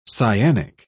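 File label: "cyanic", British English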